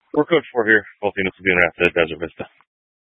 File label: Police Dispatch; Police 1 Dispatch